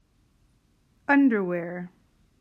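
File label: "Underwear", English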